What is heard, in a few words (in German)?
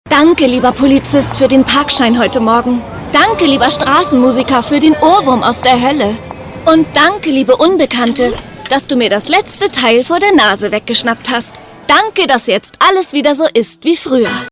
spot; s oliver